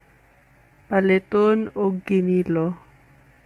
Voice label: Cebuano